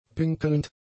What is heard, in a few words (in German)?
"pinkelnd", German; adjectives